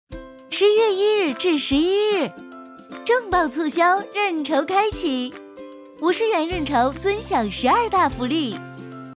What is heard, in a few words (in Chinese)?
促销